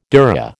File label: "dhurra", American